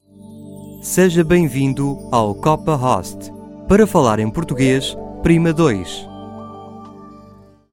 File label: eu portuguese